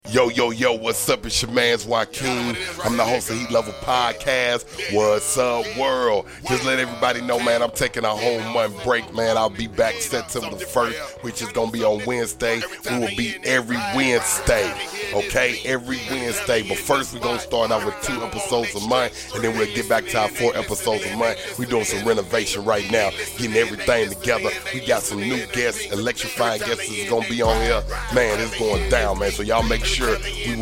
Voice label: Commercial promo

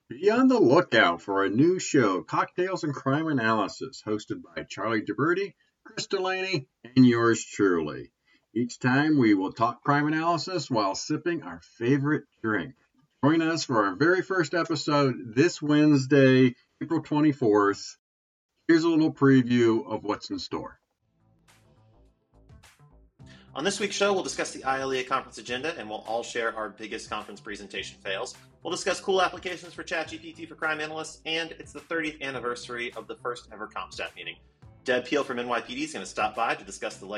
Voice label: promo break

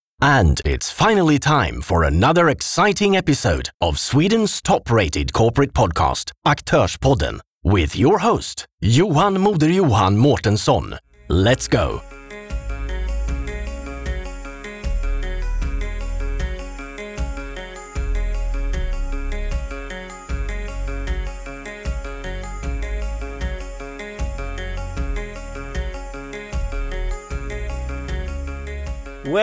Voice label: Swedish voice over

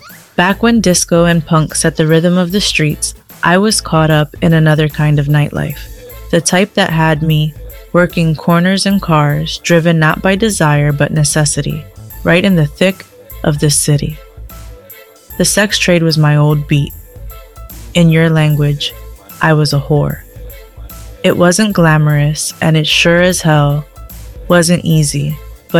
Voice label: trailer; echoes

